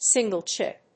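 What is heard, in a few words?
"single chip", English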